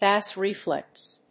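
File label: "bass reflex", English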